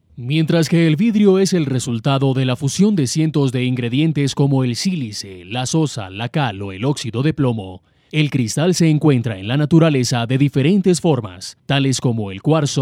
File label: locutor colombiano colombian voiceover